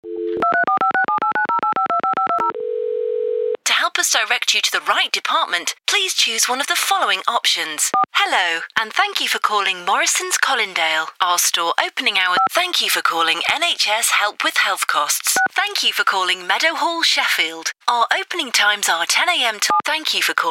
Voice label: telephone